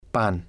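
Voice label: "pan", Spanish, male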